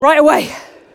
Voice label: english Idle; azurlane hoi4; azurlane sr reforged